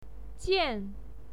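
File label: "jian", Mandarin Chinese pinyin, fourth tone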